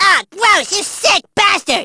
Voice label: gross, Furby